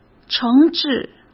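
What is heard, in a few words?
"诚挚", Mandarin Chinese; hsk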